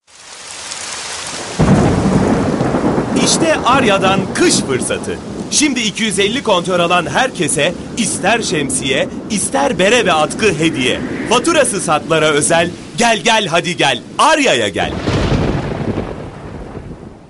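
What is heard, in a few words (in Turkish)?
kampanya, seslendirme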